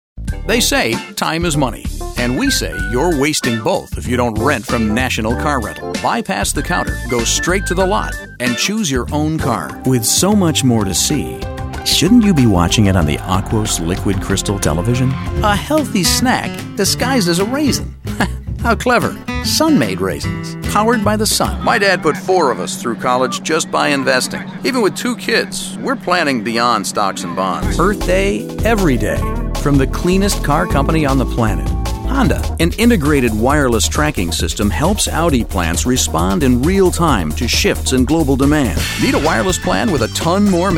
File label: vo commercial